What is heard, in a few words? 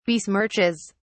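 "besmirches", British English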